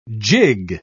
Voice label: "jig", pronounce